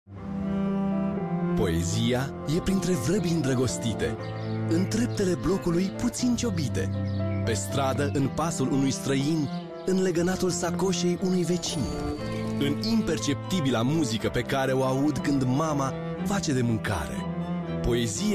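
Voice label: Romanian, Commercial; GoLocalise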